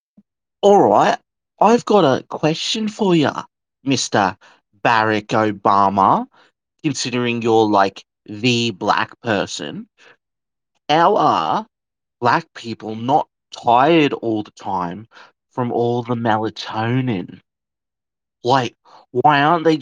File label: voice message